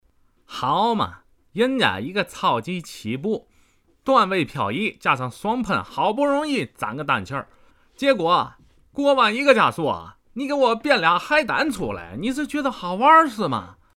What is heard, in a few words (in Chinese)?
卡丁车(游戏解说 天津)